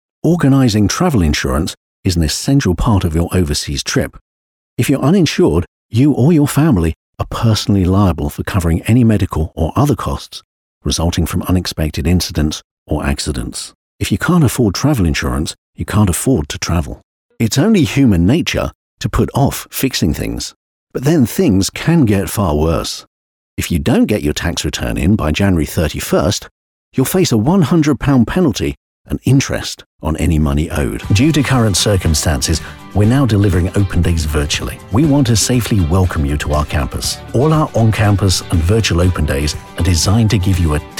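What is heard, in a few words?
English male; lektoring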